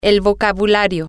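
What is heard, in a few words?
female, spanish; the Vocabulary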